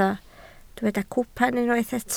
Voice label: "cwpan", Welsh